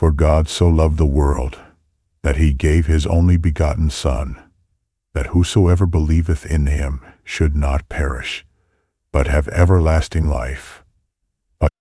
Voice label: untrained john 3 16, bible narration; xtts v2